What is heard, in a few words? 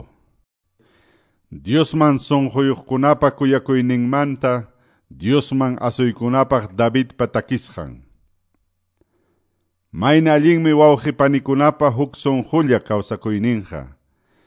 salmos, quechua ayacucho